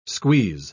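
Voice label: "squeeze", English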